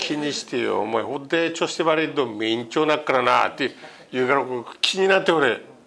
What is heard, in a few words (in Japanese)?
chosu, minami yamagata